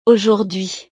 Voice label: "aujourd'hui", francés